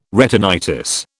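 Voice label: "retinitis", British English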